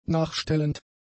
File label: "nachstellend", German; adjectives